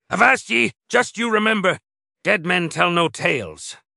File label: upset; fertig classic games; schooner